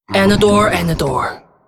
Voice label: female demon hunter morphed yes, zh

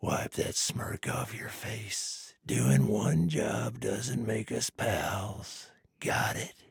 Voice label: trader male dislike quest complete

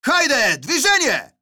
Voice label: neutral

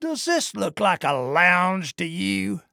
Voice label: trader male neutral greeting

